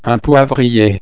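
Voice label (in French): "poivrier", French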